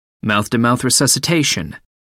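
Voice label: "mouth-to-mouth resuscitation", British English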